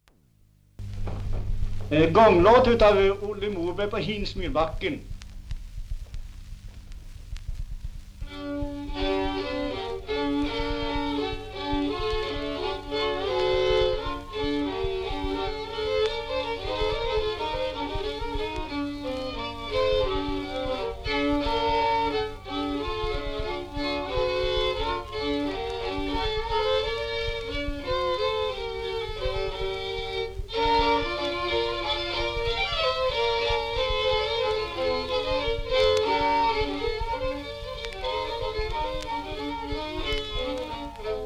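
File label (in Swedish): Ovanåker Hälsingland, dialekter; Folkminnen; Svenska sagor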